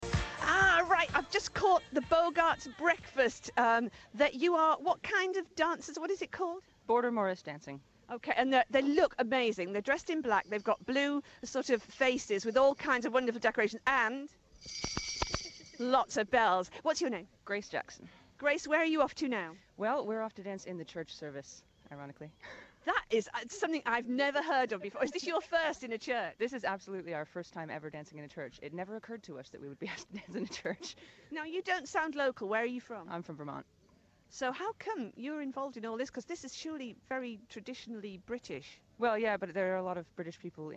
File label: Interview; Warwick Folk Festival 2008